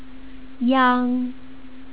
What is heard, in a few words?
"yang", Chinese